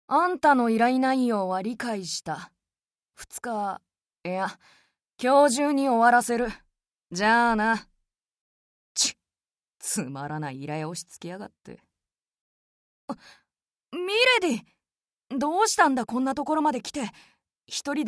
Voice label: cool boy

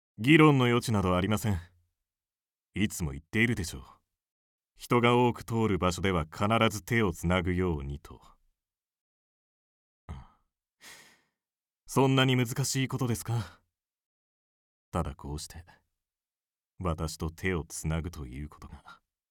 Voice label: clumsy personality

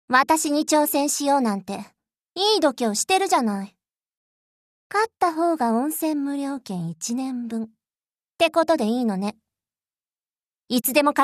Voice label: bullish girl

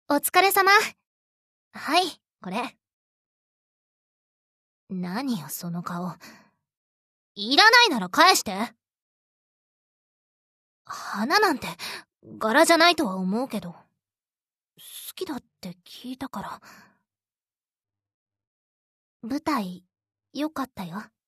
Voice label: Tsundere

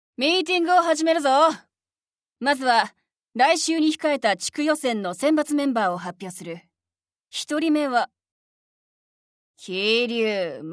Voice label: spartan style PE teacher

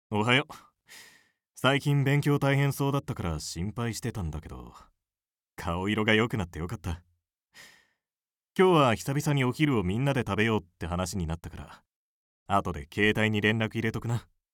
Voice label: good young man

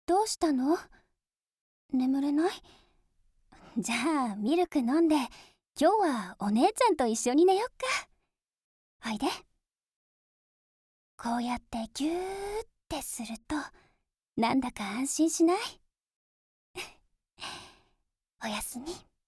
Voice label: older sister

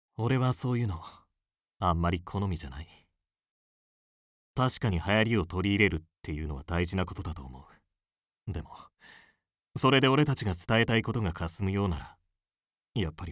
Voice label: serious young man

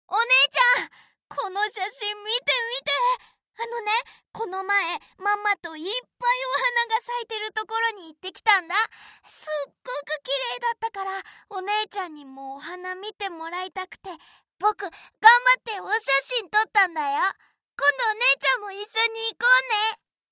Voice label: cute boy

